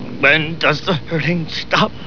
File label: hurting